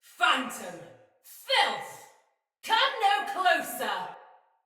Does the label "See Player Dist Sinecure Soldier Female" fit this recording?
Yes